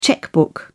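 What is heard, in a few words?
"chequebook", British English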